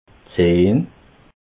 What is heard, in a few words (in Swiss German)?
"zeh", alsace